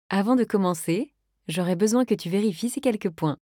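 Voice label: onboarding provision terms French; Bixby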